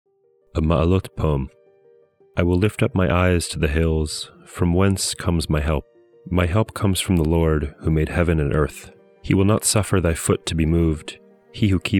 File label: poetry and prayer psalm english